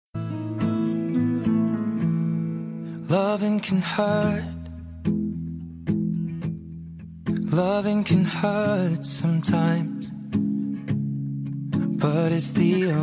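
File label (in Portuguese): birthday PAI female, telemensagem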